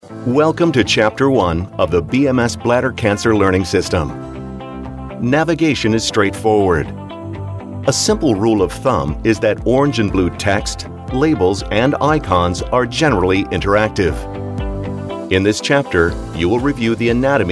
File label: american medical